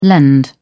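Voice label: "lend", British English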